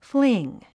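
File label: "fling", 英単語 発音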